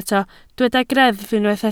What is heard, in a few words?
"greddf", Welsh